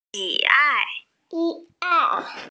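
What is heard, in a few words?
"xi3 ai4", Mandarin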